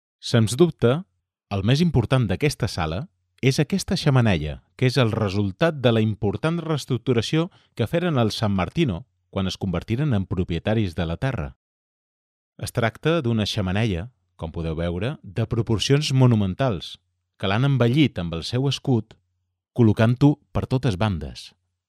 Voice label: locutor catalan voiceover